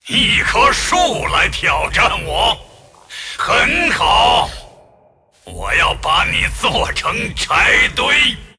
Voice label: move, zh